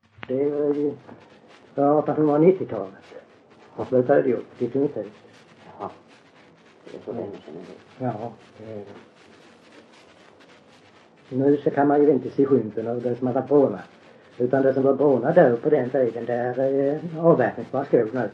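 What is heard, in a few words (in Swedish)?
Öljehult Blekinge, dialekter svenska sagor; Folkminnen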